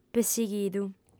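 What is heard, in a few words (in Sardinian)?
"pessighidu", female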